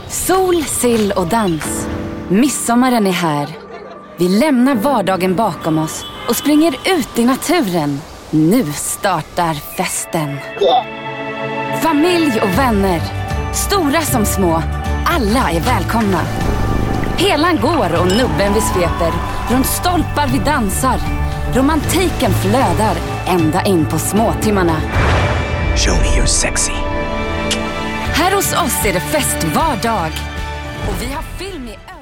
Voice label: Swedish

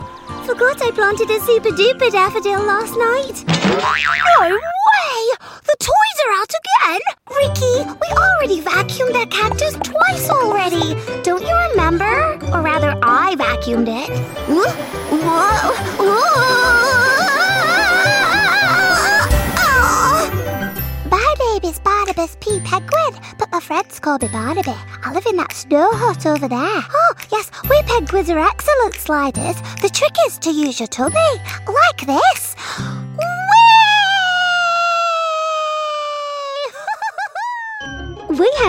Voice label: Animation

